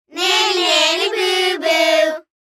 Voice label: Kids Nanny Nanny, Boo, Boo; Human; Talking Sound Effects; Voice

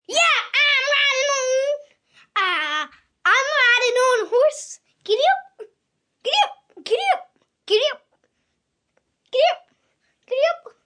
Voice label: Im Riding A Horse, 1 boy, 8 yrs; Talking Sound Effects

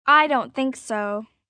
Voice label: Response, 1 girl, 12 yrs.; IDontThinkSo2; Talking Sound Effects; Sound Effects:Voice; VoiceBox

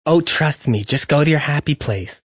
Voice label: Go To Happy Place, Male; Talking Sound Effects

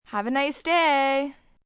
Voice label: "Have A Nice Day", Etiquette, 1 girl, 12 yrs.; Talking

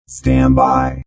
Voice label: Robot Voice "Stand By"; Robot Type 2 voice; Lynne Music

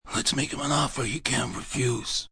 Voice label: Make An Offer, Mafia, gangster; Talking Sound Effects

